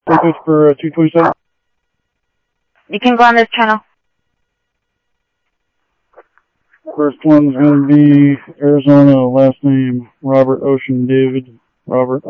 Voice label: Sheriff, scanner audio